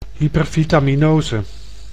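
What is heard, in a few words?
"hypervitaminose", Dutch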